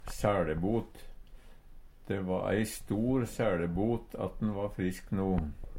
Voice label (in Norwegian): sæLebot, Numedalsmål